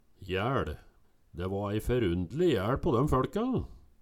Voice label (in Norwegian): jæL, Numedalsmål; De va ei ferundle jæL på døm føLka